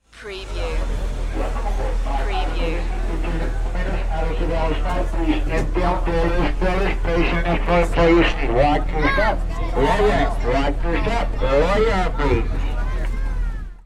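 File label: Shuttle Announcement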